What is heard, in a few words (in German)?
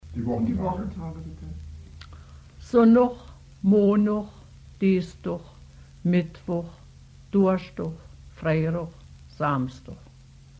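wochentage, O-Ton Hunsrück; Mastershausen; Tonaufnahmen: Universität Marburg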